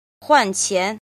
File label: "jin", Chinese